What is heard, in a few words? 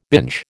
"binge", American